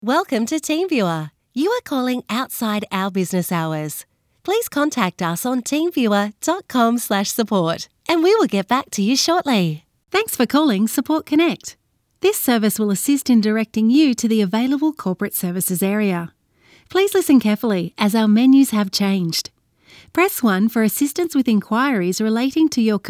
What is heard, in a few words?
telephony